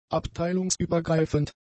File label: "abteilungsübergreifend", german; adjectives